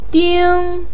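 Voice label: "ding1", Mandarin; 丁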